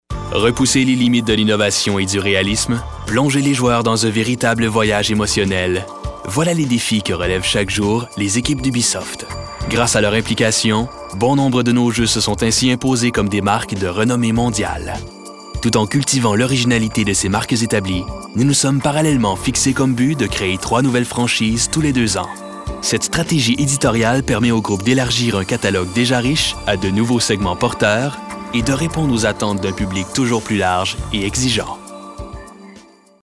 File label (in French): Corpo; Ubisoft